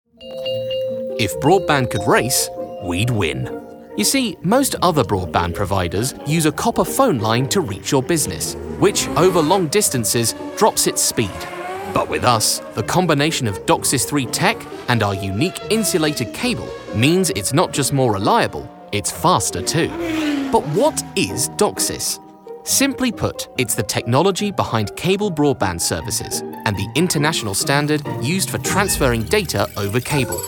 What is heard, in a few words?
narrative